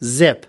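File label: "zip", US English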